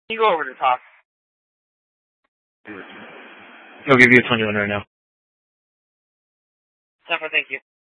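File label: Scottsdale Police South Dispatch; Regional Wrlss Cooperative (RWC) - Smlcst H- Scttsdle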